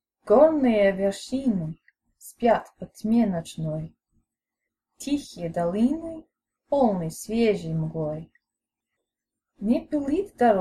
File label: Reading; Gornye Doliny; Lermontov